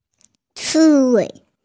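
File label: "hedgehog", Mandarin, hearing-impaired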